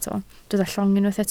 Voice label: "llong", Welsh